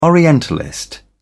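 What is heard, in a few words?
"orientalist", British English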